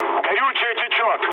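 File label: tank damaged, mood low, Russian; War Thunder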